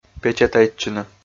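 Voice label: "печатайтчыны", Komi, male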